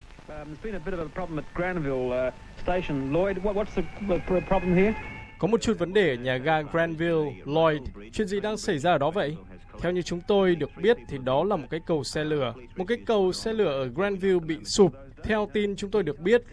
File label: vietnamese; SBS Vietnamese; Kỷ niệm 40 năm tai nạn tàu hỏa tệ hại nhất trong lịch sử nước Úc